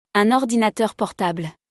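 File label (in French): "Un ordinateur portable", French; vocabulaire; le lexique de l'informatique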